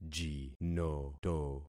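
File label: "jinoto", concatenated syllables; Affixing experiment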